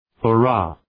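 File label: "hurrah", English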